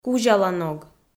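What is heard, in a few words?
"кузяла ног", Komi, female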